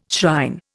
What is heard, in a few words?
"shrine", Indian